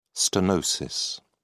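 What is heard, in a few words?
"stenosis", British English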